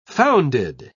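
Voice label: "founded", English, British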